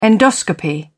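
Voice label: "endoscopy", British English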